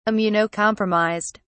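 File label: "immunocompromised", British English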